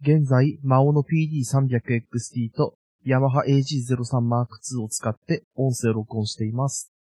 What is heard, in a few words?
maono pd300xt normal 500 gain